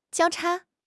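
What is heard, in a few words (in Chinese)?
"交叉", Mandarin Chinese; HSK6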